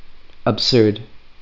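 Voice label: "absurd", USA, male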